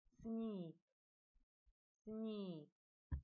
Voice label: snow, Czech

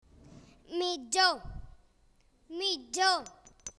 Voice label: stocking, Spanish (Castellano); mitjó

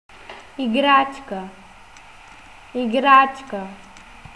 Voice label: toy bg; играчка

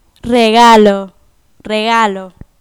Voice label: gift spanish; Regalo

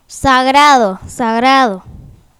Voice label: holly spanish; Sagrado

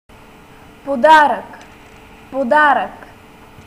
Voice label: gift, Bulgarian; дар

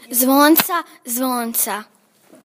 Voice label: Bells cro; Zvonca

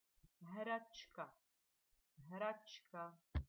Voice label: toy, Czech; hračka

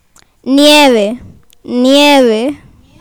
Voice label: snow spanish; Nieve

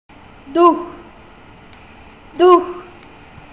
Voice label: "spirit", bg; дух

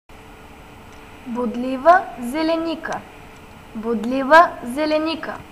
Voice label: "holly", bg; бодлива зеленика

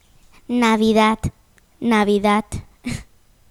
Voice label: Christmas spanish; Navidad

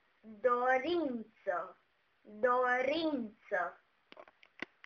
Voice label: "wish", ROMANIAN; dorință

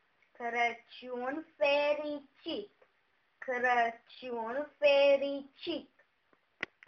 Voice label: "Mery Christmas", ROMANIAN; Crăciun fericit!

